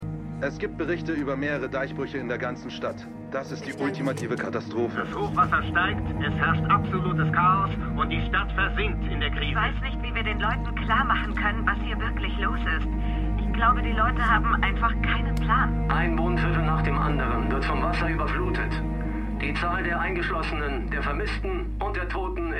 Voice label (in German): Reporterstimme; MEMORIAL HOSPITAL - DIE TAGE NACH HURRIKAN KATRINA (DF 2022); Five Days at Memorial